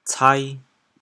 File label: "cai2", chaoyang